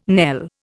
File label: "knell", Indian